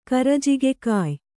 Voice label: "ಕರಜಿಗೆಕಾಯ್", Kannada

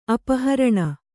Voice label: "ಅಪಹರಣೆ", kannada

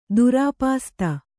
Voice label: "ದುರಾಪಾಸ್ತ", Kannada

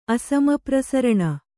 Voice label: "ಅಸಮಪ್ರಸರಣ", kannada

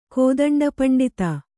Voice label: "ಕೋದಂಡಪಂಡಿತ", Kannada

